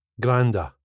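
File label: "granda", Scottish English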